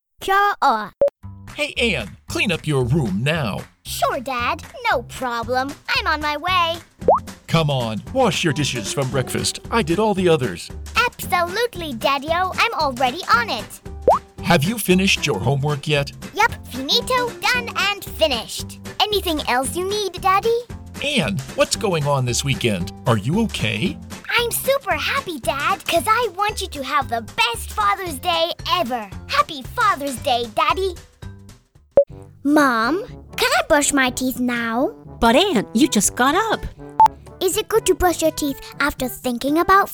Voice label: COMMERCIAL ADVERTS